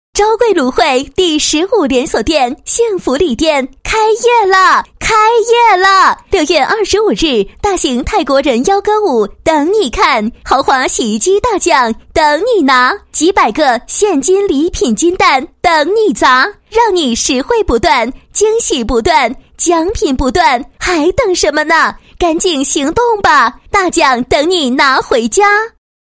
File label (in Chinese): 女 开业促销; 昭贵芦荟衡水第十五连锁店开业